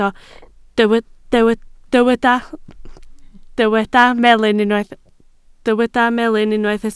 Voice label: "melyn", Welsh